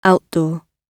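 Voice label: "outdoor", British English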